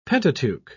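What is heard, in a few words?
"pentateuch", English, British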